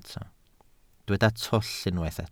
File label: "twll", Welsh